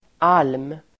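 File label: "alm", Swedish; Uttal: [al:m]; elm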